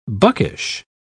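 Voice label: "buckish", English, British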